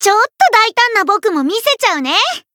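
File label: variable chant, Japanese; ciel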